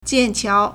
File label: "jian4 qiao2", Chinese